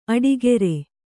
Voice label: "ಅಡಿಗಾರ", Kannada